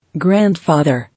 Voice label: "Grandfather", English